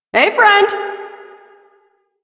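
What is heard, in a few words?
Female Brisk; Warframe; Duviri; Duviri Ambient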